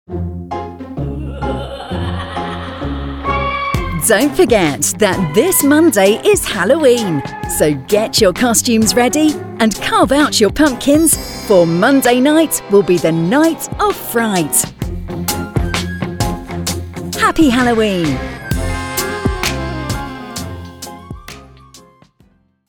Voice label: Halloween Seasonal Message